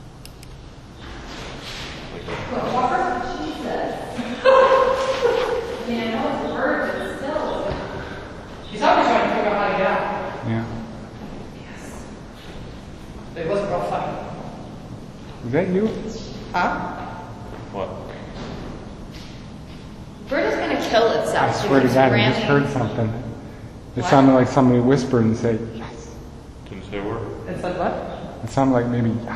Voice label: voice in library; Ohio State Reformatory